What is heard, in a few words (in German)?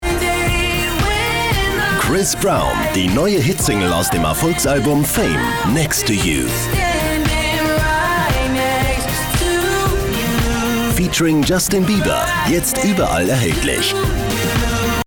werbung, deutsch maenner